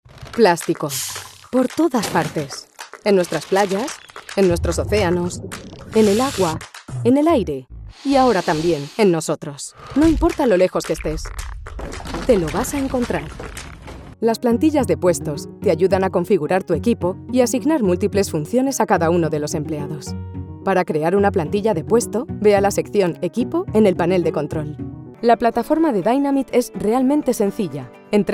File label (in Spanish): Spanish narration